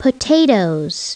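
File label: "potatoes", English; SoftKey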